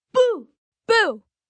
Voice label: Boo 2 Boys, 2 boys, 10 yrs

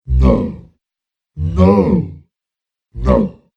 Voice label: Female Cave Troll Says No Collection, monster

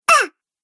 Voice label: "Ah", Cartoon Game Voice

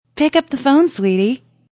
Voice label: Woman Says Pick Up Phone Sweetie; Woman talking; Voice

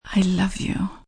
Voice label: I Love You F, female; Talking Sound Effects; Endearment; VoiceBox; Twistedtracks

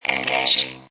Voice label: Robot Voice "Engaging"; Lynne Music

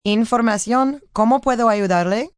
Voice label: Information, Telephone operator, Spanish, female; Telephone Voice; Voice Box

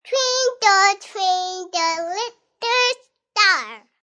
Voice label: Kid Says Twinkle Twinkle Little Star, Kid talking; Children; Human

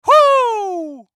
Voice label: VOICE COMICAL; Radio Voice -Whoa; Comedy

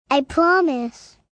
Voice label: I Promise, 1 girl, 5 yrs

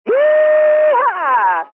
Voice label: Phone Yeeha, Male, Telephone Voice; VoiceBox

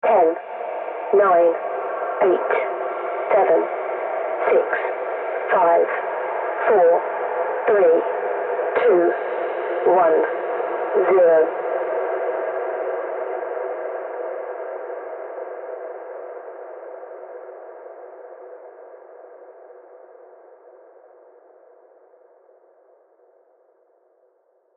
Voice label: Ambient Pa System Female Countdown; Talking Sound Effects; Human Voice Sound Effect; Andrew Fleming

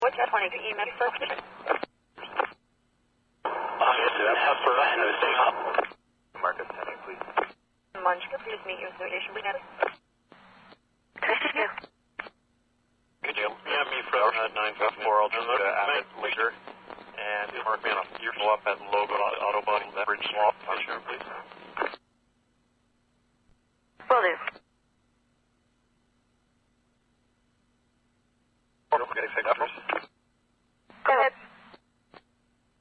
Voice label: Radio Calls Police, Radio Dispatch; Waterloo Ontario; Voice; Radio Dispatches; Big Room Sound

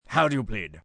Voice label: How Do You Plead, Courtroom, male, judge; Talking Sound Effects; Sound Effects:Voice; VoiceBox

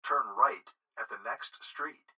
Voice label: Gps Voice, Male; Speaker Adult Male; Recorded Voice; Blastwave

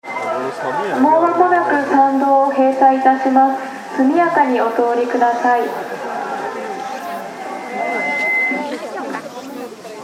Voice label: Loudspeaker Announcement Female Japan Feedback; Japanese loudspeaker announcement, Tokyo Japan; Pa Announcement Sound Effects

